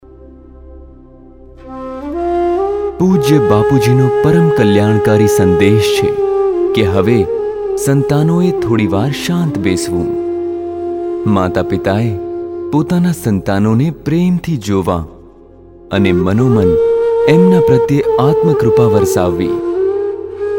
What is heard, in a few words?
Bhavse Dekhe Voice gujrati; मातृ पितृ पूजन दिवस; Poojan Vidhi Tracks Gujrati